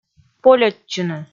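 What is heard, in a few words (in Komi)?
"польӧдчыны", Komi, female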